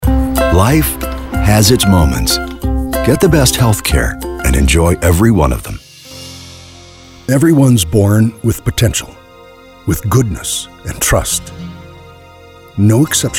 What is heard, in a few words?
Warm Caring